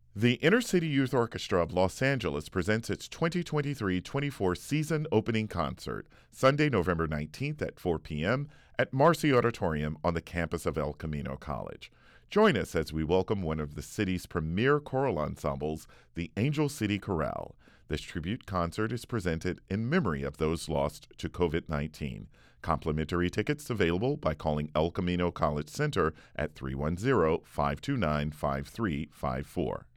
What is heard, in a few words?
RADIO voice; ICYOLA; Season Opening Concert 2023; cafestival